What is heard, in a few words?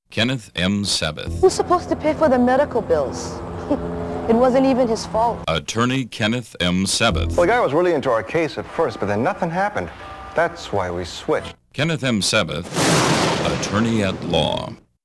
TV Spot Voiceover; Attorney at Law